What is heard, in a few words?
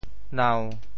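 "nau1", Teochew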